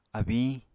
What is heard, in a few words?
"abiñ", apatani